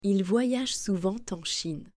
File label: "ils voyagent souvent en Chine", French